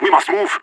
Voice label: radio lets go, vo agents; leet